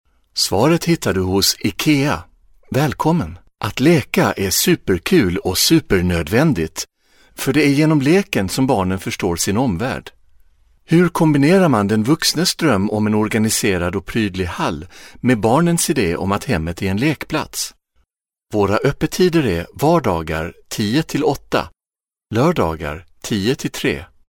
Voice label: locutor sueco swedish voiceover

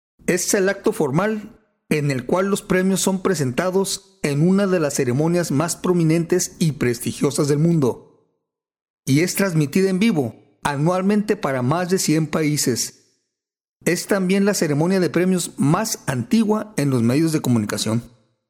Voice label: mexican voiceover locutor mexicano

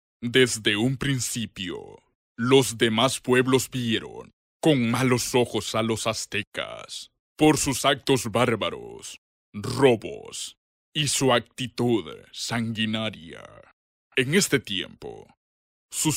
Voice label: honduran male voiceover locutor honduras; locutor de Honduras

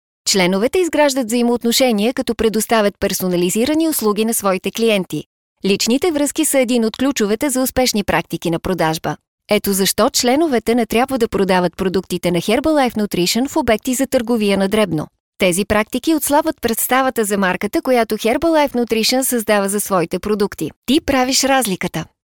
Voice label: bulgarian voiceover locutora bulgara; locutora de Bulgaria

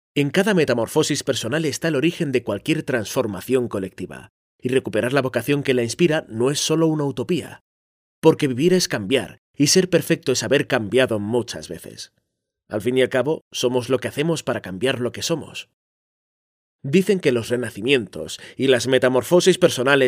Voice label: locutor spanish voiceover; Business voicemail

